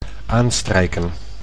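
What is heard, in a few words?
"aanstrijken", Dutch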